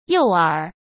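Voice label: "诱饵", Mandarin Chinese; hsk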